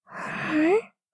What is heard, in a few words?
doubt